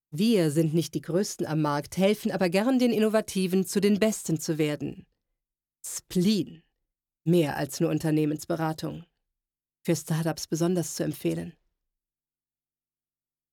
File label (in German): Werbung